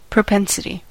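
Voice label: "propensity", US English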